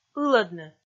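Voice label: "ылӧдны", Komi, female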